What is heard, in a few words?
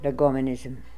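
"Legomonism", pronunciations; Fourth Way; Beelzebub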